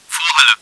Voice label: front hall, german; csco; csgo; bot